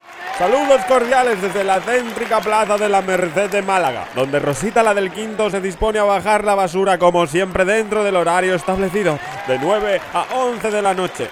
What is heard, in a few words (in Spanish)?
cuña radio publicidad; va por malaga horario